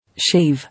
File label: "Shave", English